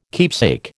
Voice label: "keepsake", American